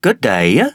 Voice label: english male villager; age2